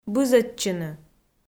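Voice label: "бызӧдчыны", Komi, female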